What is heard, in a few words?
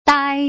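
"dai", Mandarin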